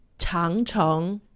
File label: "changcheng", Chinese; Survival Chinese